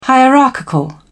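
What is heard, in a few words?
"hierarchical", English, British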